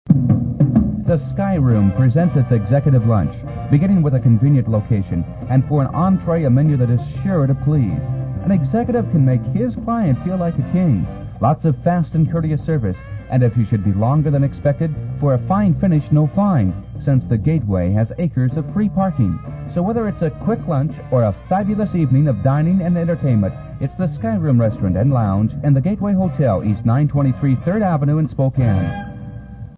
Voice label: SPOT; KSPO; Skyroom Lounge; Gateway Hotel; mid 70's